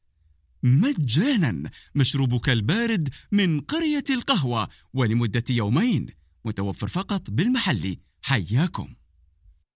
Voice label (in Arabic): اعلان صوت رجالي